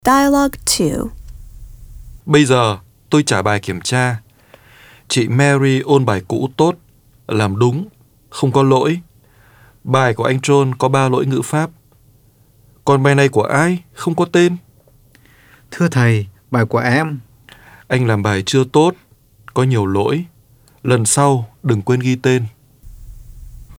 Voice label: Dialog